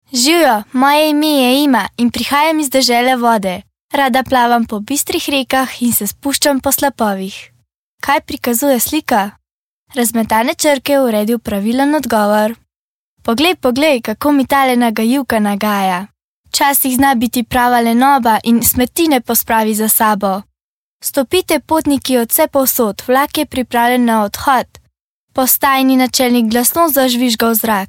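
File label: slovenian female kid narration; voice demos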